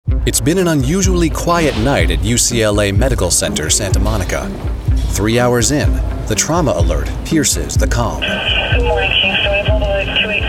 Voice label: Voice Actor TV Narration